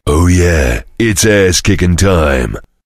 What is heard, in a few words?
cheer; svencoop; voice commands; Duke